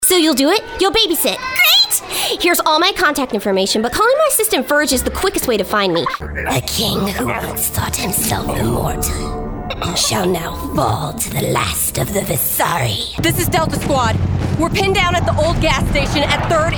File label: Character Demo Reel